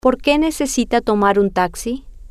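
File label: Porque Necesita Tomar Taxi, Spanish, female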